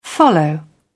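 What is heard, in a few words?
"follow through", British English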